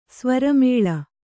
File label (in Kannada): "ಸ್ವರಮೇಳ", kannada